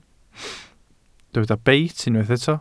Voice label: "beit", Welsh